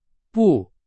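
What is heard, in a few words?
Chinese; hsk3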